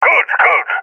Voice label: cheer, balkan; vo; balkan epic